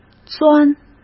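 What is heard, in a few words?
"钻", Mandarin Chinese; hsk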